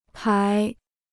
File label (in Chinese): "牌", Chinese